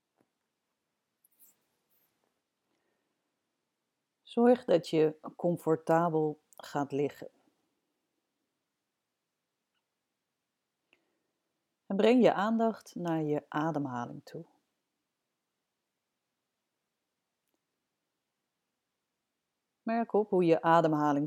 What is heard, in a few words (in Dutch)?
Bodyscan, geleide meditatie